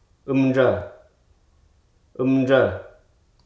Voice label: "knot", Hruso

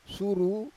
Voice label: "suru", Roon

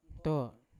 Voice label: "nto" to go, Yawa

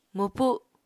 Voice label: "mwpu7", Apatani

